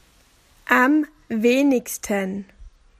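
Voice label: "least", Australian English; PictureDictionary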